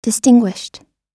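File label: "distinguished", American English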